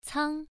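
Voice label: "cang" Mandarin, first tone, pronunciation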